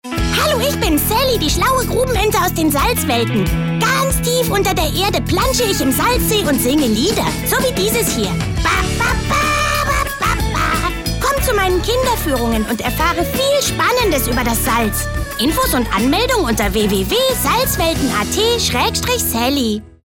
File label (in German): Charge Trickstimme, voices